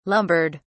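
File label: "lumbered", British English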